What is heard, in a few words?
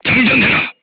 voice message load, korean